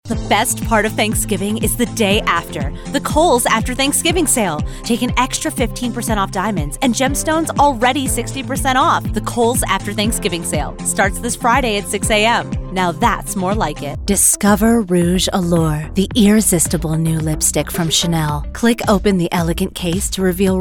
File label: commercial